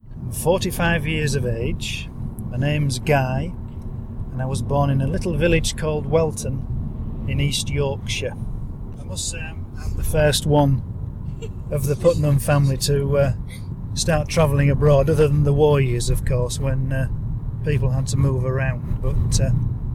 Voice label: East Yorkshire Beverley UK White Male 45 Yrs Old Travel And Spirituality